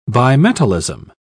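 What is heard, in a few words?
"bimetallism", English, uk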